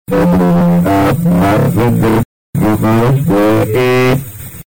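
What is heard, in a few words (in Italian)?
"febbre", Italian; Febbre e asma prender? Poco esce, eh?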